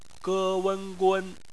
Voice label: "gun", Chinese; phonetics; bpmf